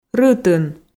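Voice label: "рытын", Komi, female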